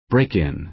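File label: "break-in", American English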